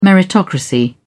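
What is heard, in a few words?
"meritocracy", British English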